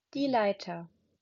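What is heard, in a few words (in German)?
"Leiter", German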